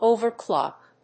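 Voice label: "overclock", English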